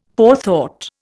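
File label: "forethought", Indian English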